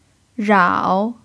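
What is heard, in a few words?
"rao" third tone, chinese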